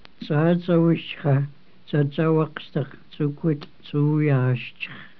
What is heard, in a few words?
ditidaht